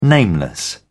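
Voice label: "nameless", British English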